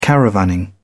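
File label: "caravanning", English, British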